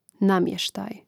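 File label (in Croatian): "namjestaj", Hrvatski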